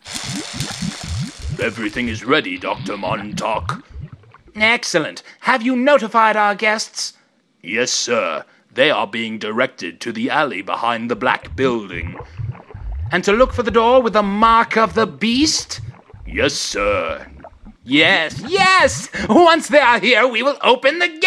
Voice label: Voice Message